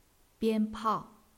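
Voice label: "bian1pao4", Chinese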